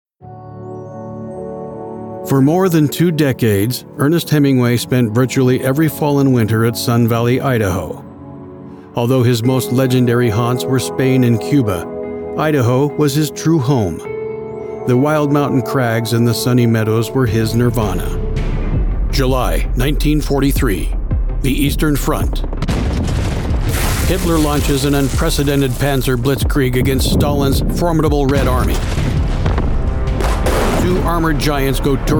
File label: Documentary